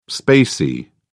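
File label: "spacey", English, British English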